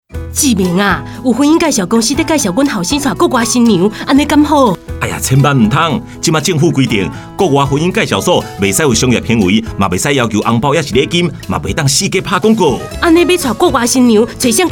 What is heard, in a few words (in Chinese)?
台語篇; 106年跨國境婚姻媒合宣導-台語篇